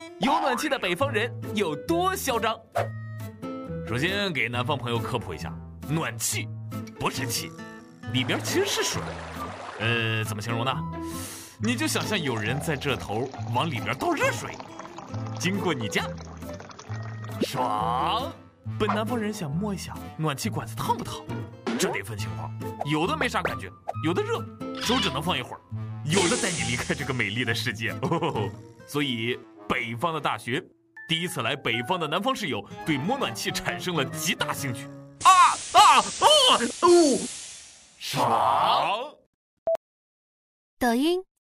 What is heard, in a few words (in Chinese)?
男 另类搞笑